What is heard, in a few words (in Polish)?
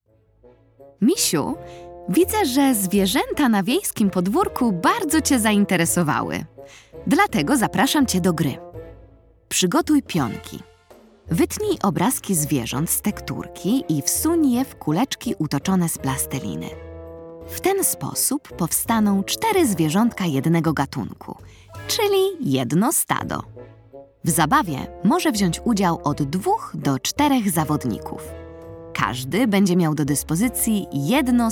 narracja; czasopismo mis; lato; do wodopoju rozmowa